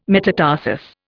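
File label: "metatarsus", English, Indian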